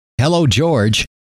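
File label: "hello", male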